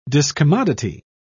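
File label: "discommodity", English, British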